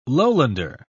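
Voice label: "lowlander", British English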